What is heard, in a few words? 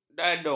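"dadung", Malay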